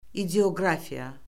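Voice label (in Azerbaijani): "ideoqrafiya", Azerbaijani; Orfoqrafiya Lüğəti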